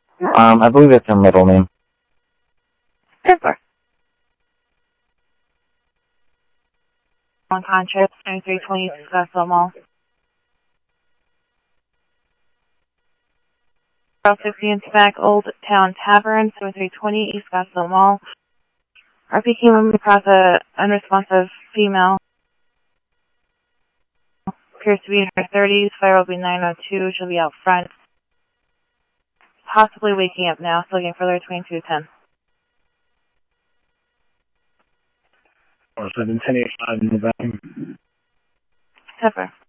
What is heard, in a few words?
scanner audio trunk